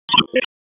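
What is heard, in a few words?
Sheriff's Office Dispatch; WSO DISP 1; wake p25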